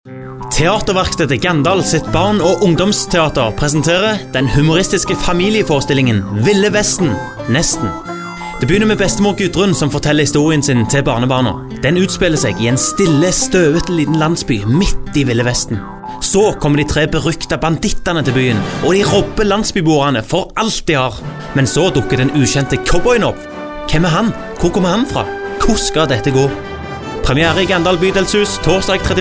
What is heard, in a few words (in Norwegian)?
radioreklame; ville vesten nesten